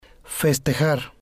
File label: "festejar", Spanish